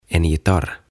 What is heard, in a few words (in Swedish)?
"En gitarr", "Kitara"